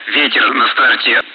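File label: Speech, Russian, air traffic control; wind at start; DCS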